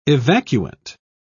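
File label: "evacuant", British English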